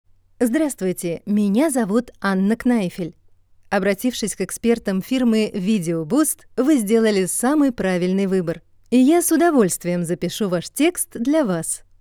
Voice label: Russisch; internationale voiceover

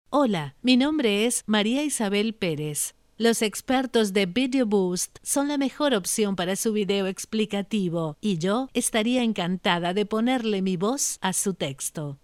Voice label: Spanisch; internationale voiceover

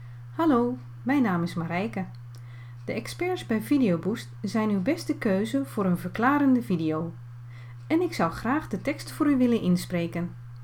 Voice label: Hollaendisch; internationale voiceover

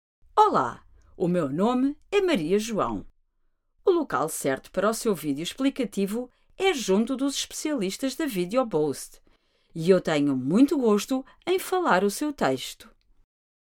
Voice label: Portugiesisch, voiceover; internationale voiceover

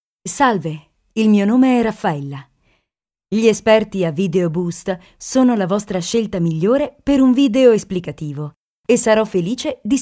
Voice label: Italienisch; internationale voiceover

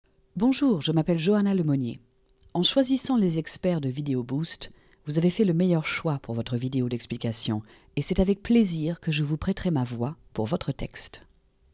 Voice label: Franzoesisch; internationale voiceover

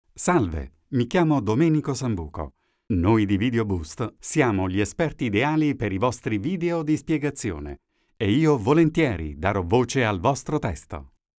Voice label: Italienisch, voiceover; internationale voiceover

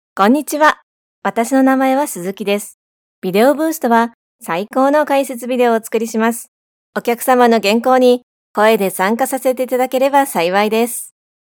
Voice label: Japanisch; internationale voiceover

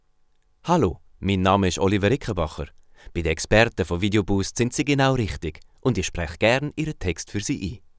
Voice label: Schweizer Deutsch, voiceover; internationale voiceover

